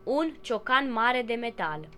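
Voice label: mallet metal desc, Romanian; household; tools; tuxpaint-stamps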